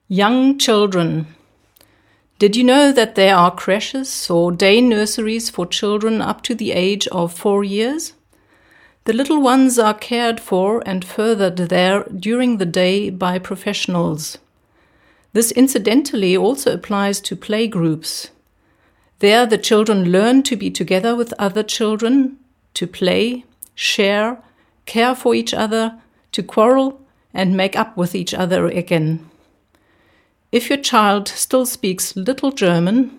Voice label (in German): engl